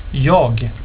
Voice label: "jag", swedish

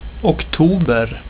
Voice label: "oktober", swedish; tijden

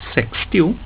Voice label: "sextio", swedish; getal

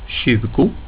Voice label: "tjugo", swedish; getal

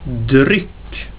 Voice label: "dryck", swedish

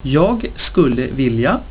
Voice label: "jag skulle vilja", swedish

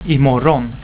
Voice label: "imorgon", swedish; tijden

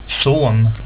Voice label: "son", swedish

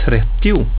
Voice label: "trettio", swedish; getal

